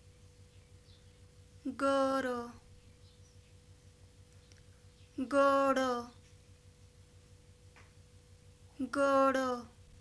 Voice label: Nepali